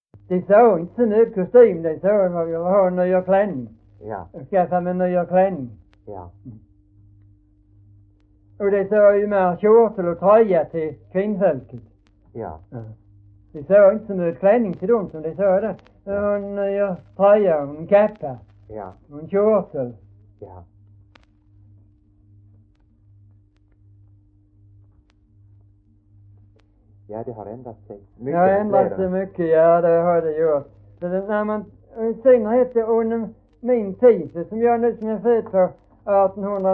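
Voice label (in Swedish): Hörja Skåne, dialekter; Folkminnen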